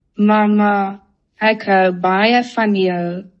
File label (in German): Afrikaans; Mama, i han di gera